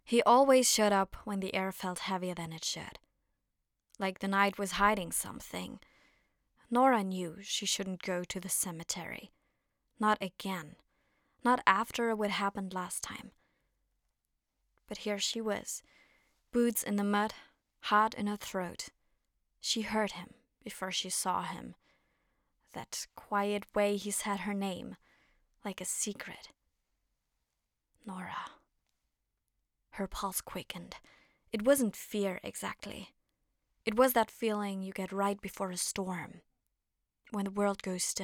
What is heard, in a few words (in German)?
Hoerprobe Roman Englisch